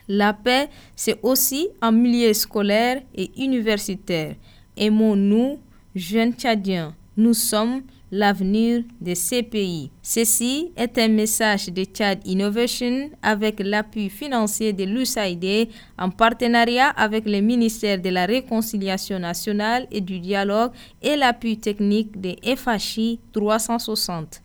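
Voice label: French Message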